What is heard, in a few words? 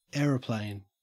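"aeroplane", Australian English; pronunciations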